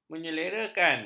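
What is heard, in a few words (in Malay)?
"menyelerakan", Malay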